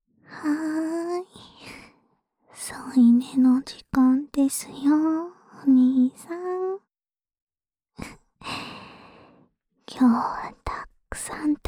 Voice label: 安眠用添い寝 左, ASMR

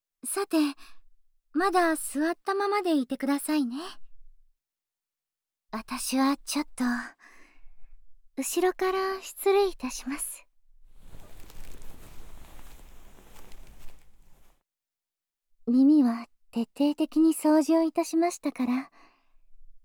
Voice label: 耳のオイルマッサージ; お坊ちゃま

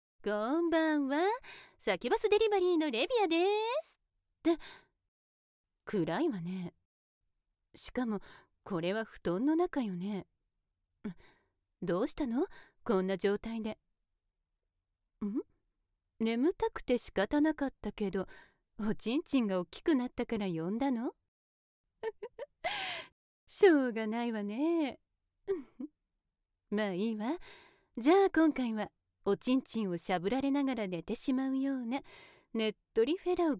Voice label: おやすみじゅぽじゅぽボイス; 効果音あり

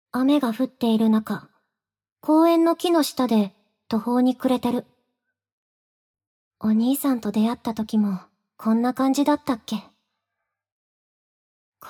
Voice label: ボイスオンリー, ASMR; 真耶の心情

